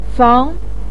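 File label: "fang" second tone, Mandarin Chinese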